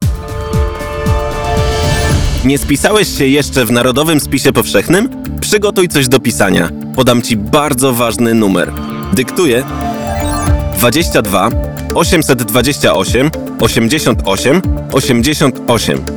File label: radio spot